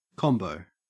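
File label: "combo", Australian English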